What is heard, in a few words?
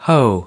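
"hoe", American English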